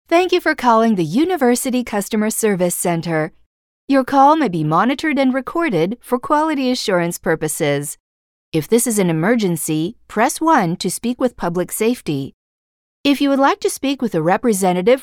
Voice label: us english ivr